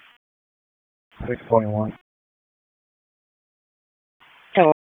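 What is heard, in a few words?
Police Southwest District Dispatch, p25; Raleigh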